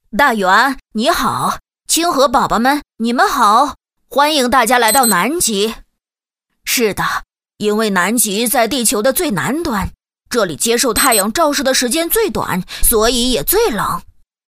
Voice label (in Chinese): 女 男童; 企鹅